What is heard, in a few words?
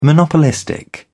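"monopolistic", British English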